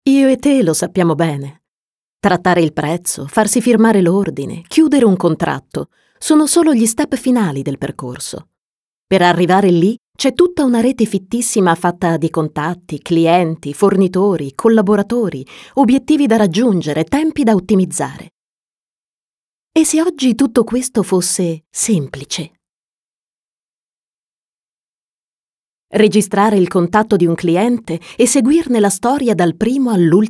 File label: Goose voice over